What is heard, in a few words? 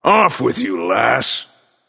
taunt directed; smite; Vulcan; yemoja